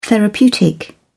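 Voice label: "therapeutic", British English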